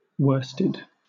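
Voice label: "worsted", British English; pronunciations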